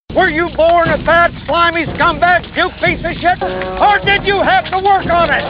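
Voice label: Drill sergeant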